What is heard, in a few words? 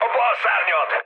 left wing, speech hun; War Thunder